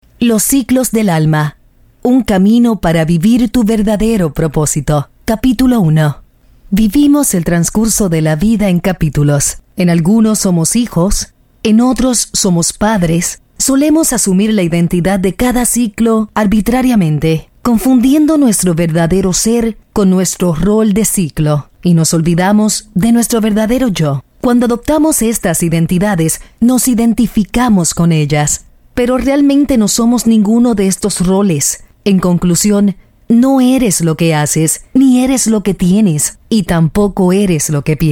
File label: spanish voice over female audiobook